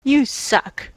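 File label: "you suck", US English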